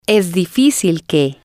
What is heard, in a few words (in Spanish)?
es difícil que, Spanish